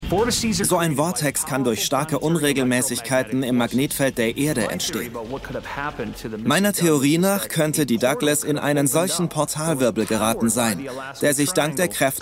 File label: Voiceover; AlaskaTriangle